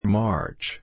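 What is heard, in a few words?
"March", English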